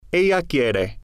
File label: "ella Quiere", Spanish